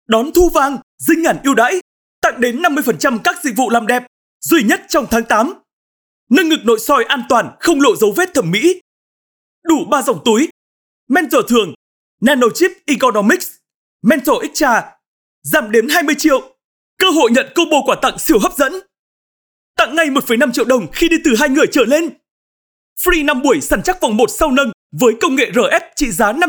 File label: Vietnamese North Male; BVBD tháng 8